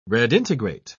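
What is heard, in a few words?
"redintegrate", English, British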